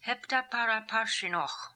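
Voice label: "Heptaparaparshinokh", pronunciations; Fourth Way; Beelzebub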